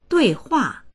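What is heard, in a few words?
"dui4hua4", Chinese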